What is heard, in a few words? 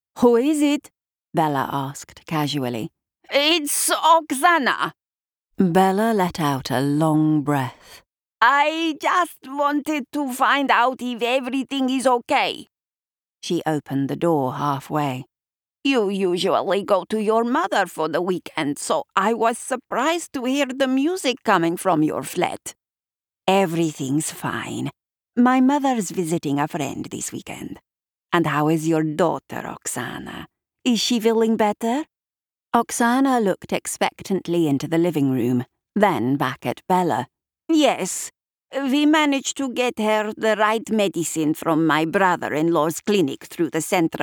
Russian Accents Historical Fiction Thriller